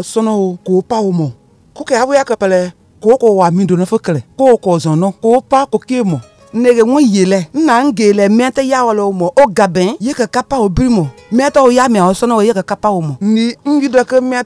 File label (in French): spot ebola pour femmes mano